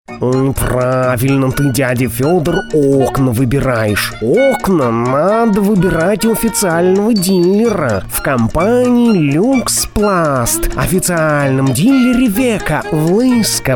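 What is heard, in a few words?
parody; Matroskin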